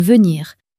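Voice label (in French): "venir", French, female; flashcards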